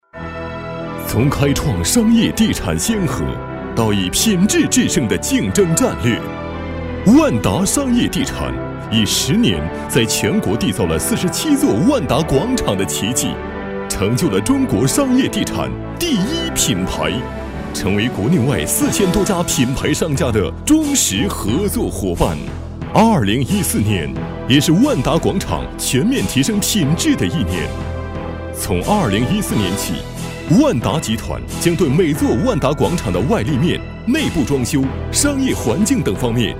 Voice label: 男国 宣传片 企业 激情; 万达集团

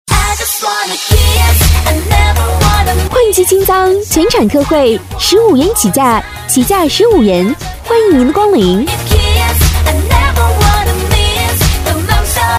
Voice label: 广告 促销 清仓广告 甜美

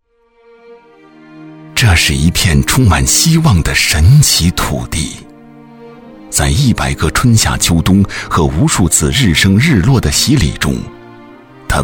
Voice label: 专题 党建 抒情, 男国; 城投党建

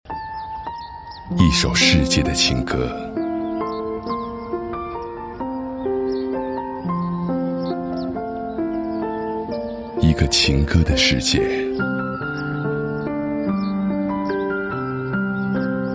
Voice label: 广告 片头片花 温暖; 康定国际情歌节

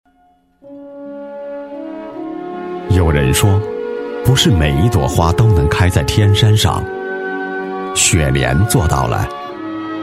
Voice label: 纪录片, 人物, 人物纪录

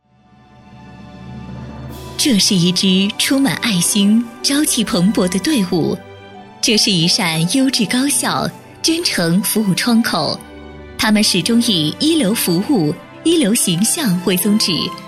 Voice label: 专题 企业 电力企业介绍 磁性

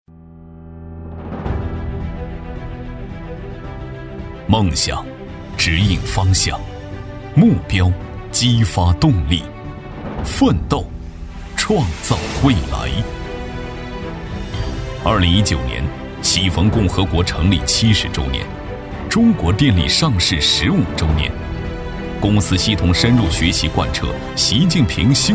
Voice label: 男 专题 稳重; 企业; 中国电力

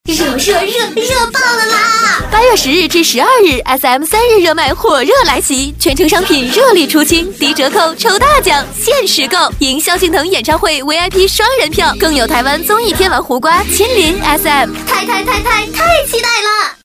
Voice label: 广告 促销 活力; SM广场促销

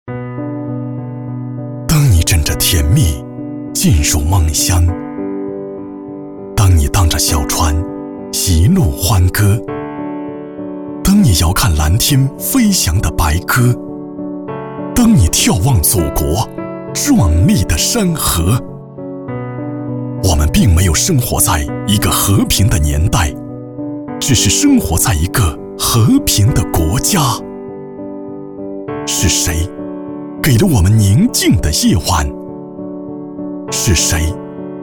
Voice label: 其他 微电影 磁性; 强军梦

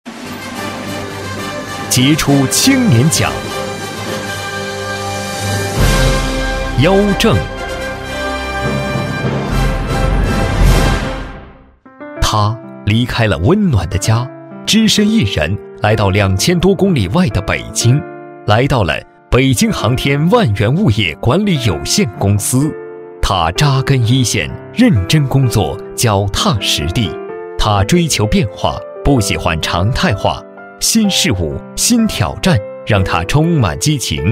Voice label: 男国 专题 颁奖; 杰出青年奖

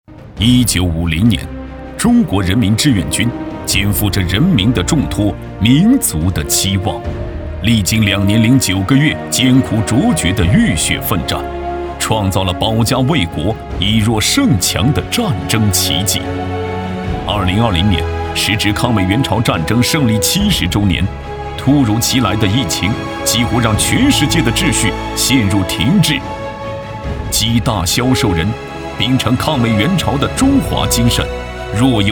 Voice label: 纪录片 人文历史 历史解说, 男

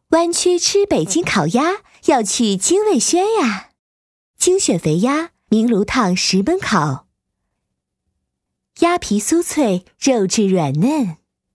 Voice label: 女 广告 品牌形象 年轻; 京味轩

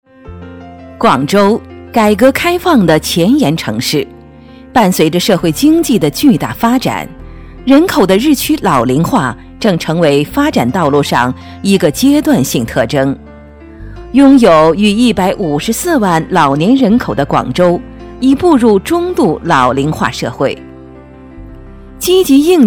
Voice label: 女 专题 政府 养老服务 自然; 广州

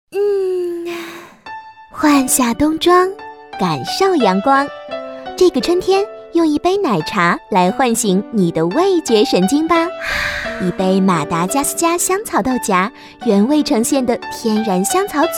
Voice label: 广告 品牌形象 奶茶 春天广告 可爱; 泡沫剧

